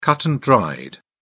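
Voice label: "cut-and-dried", British English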